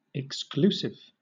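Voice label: "exclusive", British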